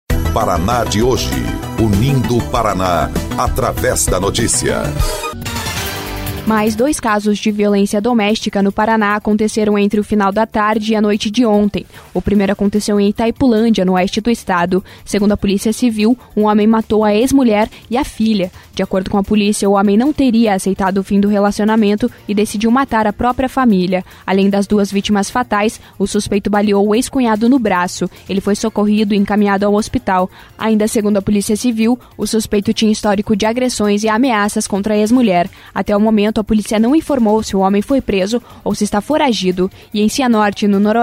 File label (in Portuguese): BOLETIM; Casos de violência doméstica deixam três mulheres mortas e um ferido no interior